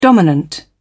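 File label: "dominant", British English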